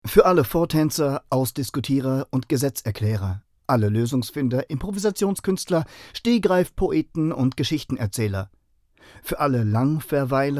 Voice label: werbefilm deutsch

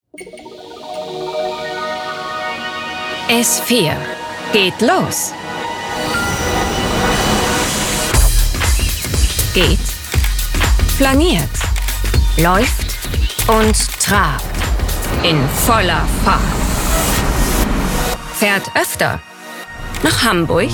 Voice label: imagefilm; s4 geht los

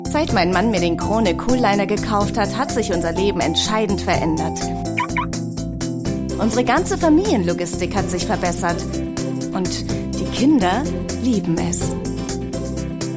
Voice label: imagefilm; krone 2016

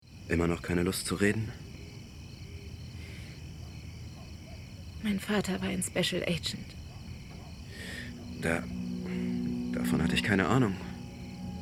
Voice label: synchron; quantico; rolle alex

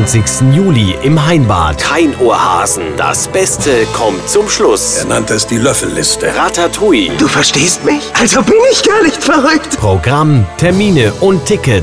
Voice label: promo kinosommer radio; radio galaxy

